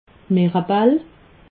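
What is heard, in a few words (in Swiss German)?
"mirabell", Alsatian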